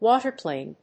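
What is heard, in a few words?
"Water plane", English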